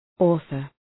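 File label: "author", English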